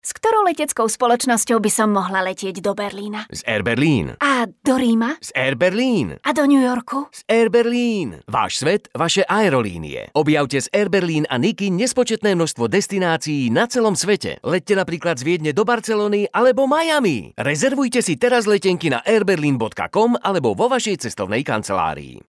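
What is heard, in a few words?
Male Slovak commercial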